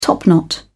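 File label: "topknot", British English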